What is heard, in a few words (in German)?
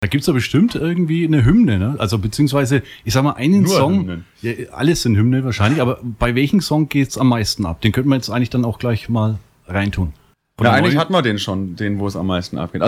Ansage Bloede Frage Saufgelage